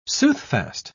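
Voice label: "soothfast", British English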